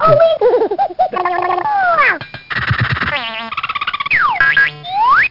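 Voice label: "oh oui", French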